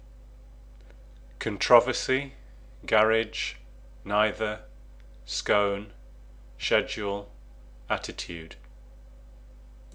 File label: six words staffordshire uk male